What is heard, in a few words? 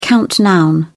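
"count noun", British English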